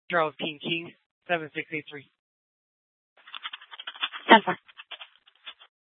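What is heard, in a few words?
Police Department North Dispatch, scanner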